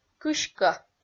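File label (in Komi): "кыськӧ", Komi, female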